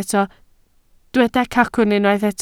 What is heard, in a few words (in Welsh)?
"cacwn", Welsh